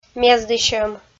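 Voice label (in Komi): "мездысьӧм", Komi, female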